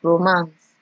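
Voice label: "romance", Nigerian Pidgin, female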